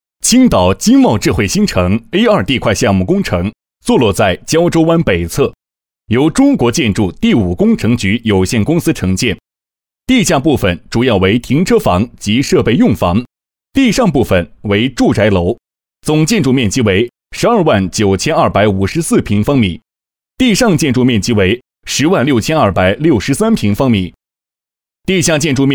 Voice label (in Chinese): 男 地块项目（正式）